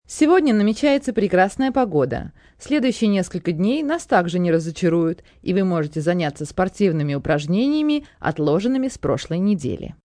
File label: Sunny Day, Broadcast, weather, female weather report in Russian, beautiful day, news; Broadcast Sound Effects; human voice speaking